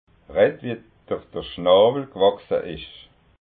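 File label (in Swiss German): "schnawel", Alsatian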